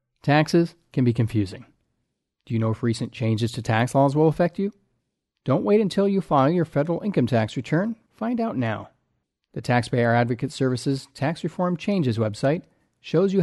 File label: PSA English No Musics; 41 second; Tax Changes